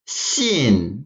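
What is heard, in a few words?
"xin4", Mandarin Chinese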